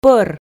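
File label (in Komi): "пӧр", Komi, female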